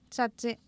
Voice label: Oapan minimal pairs, female; Oapan minimal pairs 1998

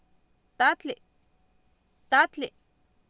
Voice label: "taatli" Nahuatl, Oapan, female; Oapan minimal pairs 2000

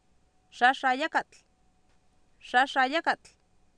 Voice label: "xaaxaayakatl", Oapan, female; Oapan minimal pairs 2000

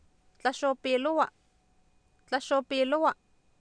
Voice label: "tlaxoopiilowa" Nahuatl, Oapan, female; Oapan minimal pairs 2000

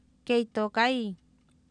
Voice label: kee iitookAiin, Oapan, female; Oapan minimal pairs 1998

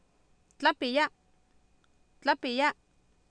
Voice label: "tlApiyA" Nahuatl, Oapan, female; Oapan minimal pairs 2000

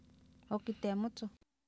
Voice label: ookiteemotsoh, Oapan minimal pairs female; Oapan minimal pairs 1998

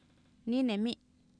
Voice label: nIinemI, Oapan minimal pairs female; Oapan minimal pairs 1998

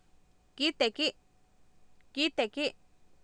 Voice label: kIitekI, Oapan minimal pairs female; Oapan minimal pairs 2000

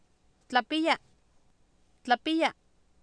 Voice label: "tlapiya" Nahuatl, Oapan, female; Oapan minimal pairs 2000

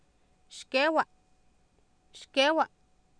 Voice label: "xkeewa" Nahuatl, Oapan, female; Oapan minimal pairs 2000

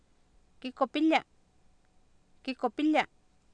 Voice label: "kikopilia" Nahuatl, Oapan, female; Oapan minimal pairs 2000

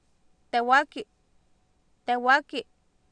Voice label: "tewaaki" Nahuatl, Oapan, female; Oapan minimal pairs 2000

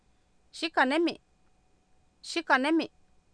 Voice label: "xikah nemi" Nahuatl, Oapan, female; Oapan minimal pairs 2000

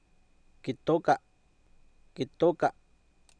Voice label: "kitooka", Oapan, male; Oapan minimal pairs 2000

